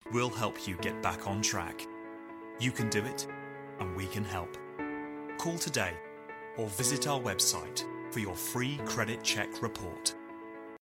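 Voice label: UK English Commercial; GoLocalise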